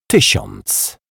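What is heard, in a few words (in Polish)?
"tysiąc", Polish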